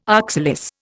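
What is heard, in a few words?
"oxalis", Indian English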